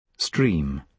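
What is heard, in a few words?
"stream", British English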